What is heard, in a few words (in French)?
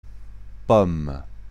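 "pomme", French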